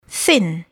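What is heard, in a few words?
"thin" English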